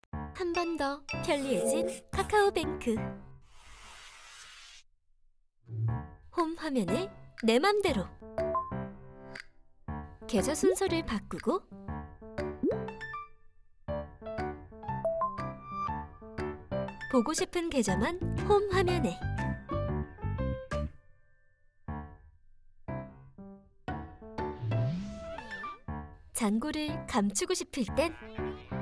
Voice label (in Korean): 편안한 자연스러운; 카카오뱅크